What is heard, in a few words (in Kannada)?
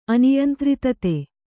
"ಅನಿಯಂತ್ರಿತತೆ", kannada